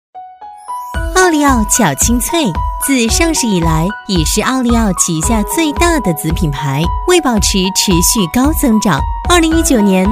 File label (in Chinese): 女 广告配音 轻快活泼, 甜美亲切; 奥利奥